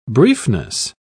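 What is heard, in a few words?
"briefness", British English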